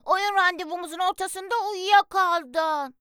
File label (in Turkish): Kız Çocuk